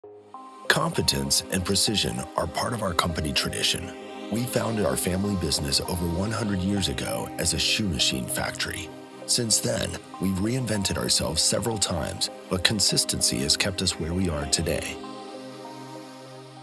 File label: Corporate